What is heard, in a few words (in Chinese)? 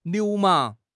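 "流氓", Chinese; HSK6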